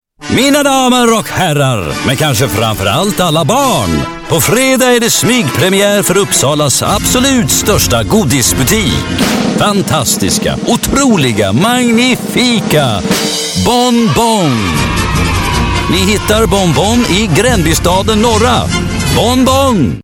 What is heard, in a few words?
in Swedish Theatrical Circus manager